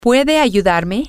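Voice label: can you help me, spanish, female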